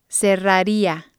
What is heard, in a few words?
"cerraria", spanish